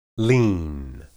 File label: "lin", Hebrew